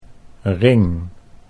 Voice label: "ring", Dutch; sieraden

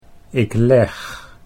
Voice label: "ik leg", Dutch

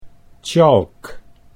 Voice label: "tjalk", Dutch

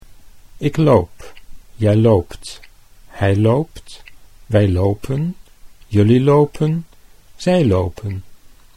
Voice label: "ik loop", Dutch

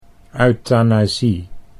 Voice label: "euthanasie", Dutch

